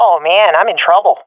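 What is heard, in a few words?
taking fire, swat female; vo; agents; Source Model Packs; Counter Strike Model Pack; CS2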